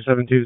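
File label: Fire Department HQ Dispatch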